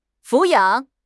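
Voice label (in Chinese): "抚养", Mandarin Chinese; HSK6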